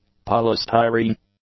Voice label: "polystyrene", English

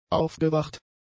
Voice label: "aufgewacht", German; adjectives

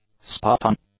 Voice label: "spot-on", english; adjectives

